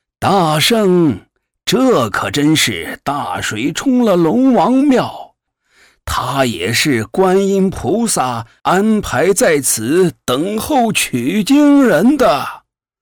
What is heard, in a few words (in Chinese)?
男,人物,土地公